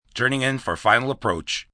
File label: Pilot Approach, Pilot, male; Pa Announcement; VoiceBox